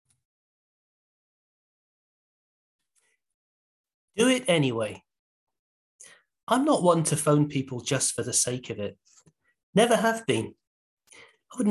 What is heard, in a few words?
Male audio